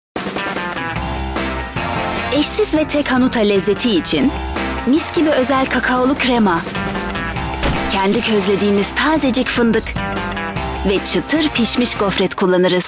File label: tr werbung tv; hanuta